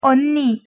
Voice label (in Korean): "언니", Korean